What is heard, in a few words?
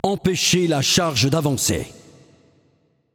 Announcer French Match Role Defend